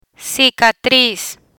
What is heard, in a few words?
"cicatriz", Spanish; medical medicine vocabulary; learn spanish